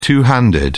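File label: "two handed", English, British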